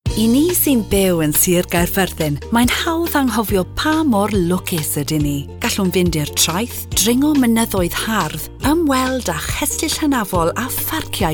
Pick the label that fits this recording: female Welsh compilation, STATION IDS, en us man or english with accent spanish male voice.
female Welsh compilation